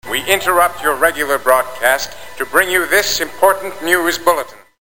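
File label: news bulletin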